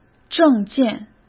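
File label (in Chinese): "证件", Mandarin Chinese; chinese-flashcards; hsk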